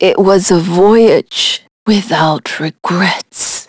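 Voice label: Vox Dead, English; Miruru; kings raid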